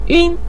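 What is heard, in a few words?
"yun" neutral tone, Mandarin Chinese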